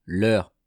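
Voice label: "leur", French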